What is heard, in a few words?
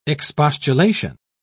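"expostulation", British English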